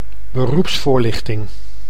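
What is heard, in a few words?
"beroepsvoorlichting", Dutch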